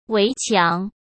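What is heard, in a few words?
"围墙", Mandarin Chinese; chinese-flashcards; hsk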